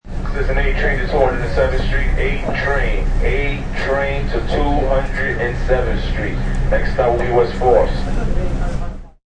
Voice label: Subway PA Announcement; Part of Subways (Sound Effects:Vehicles)